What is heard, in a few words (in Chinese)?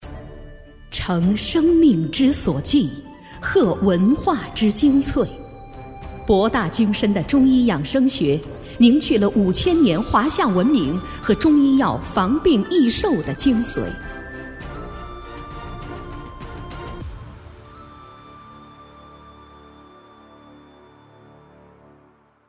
广告大气中医养生